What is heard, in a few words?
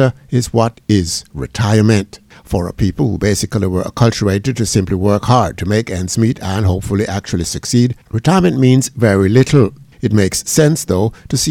COMMENTARY